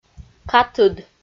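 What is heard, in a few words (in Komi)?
"катыд", Komi, female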